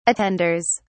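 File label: "attenders", English, British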